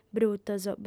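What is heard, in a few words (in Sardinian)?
"brutos", female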